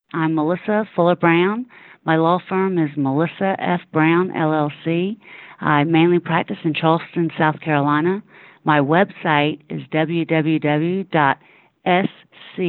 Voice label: Testimonial; Impact Makers Radio